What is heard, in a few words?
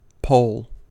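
"poll", American English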